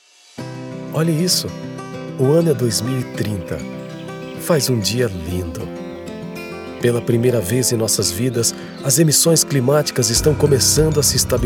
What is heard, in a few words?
portuguese brazilian narration